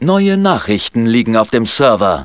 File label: german m